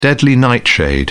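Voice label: "deadly nightshade", British English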